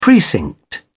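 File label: "precinct", British English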